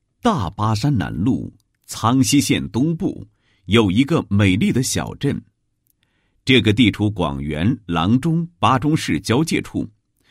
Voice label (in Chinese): 男国 专题 学校 稳重; 初级中学